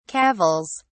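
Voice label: "cavils", British English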